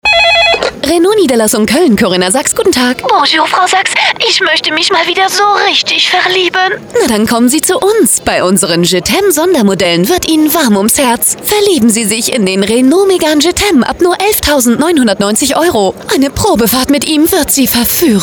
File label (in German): werbung; twingo je t aime